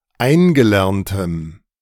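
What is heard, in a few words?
"eingelerntem", German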